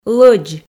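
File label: "лӧдз", Komi, female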